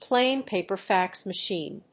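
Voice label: "plain-paper fax machine", English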